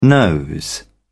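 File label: "nose", British English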